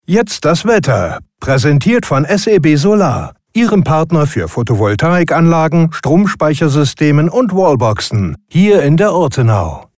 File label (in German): Solar Sponsoring, werbesprecher; deutscher Werbesprecher; SEB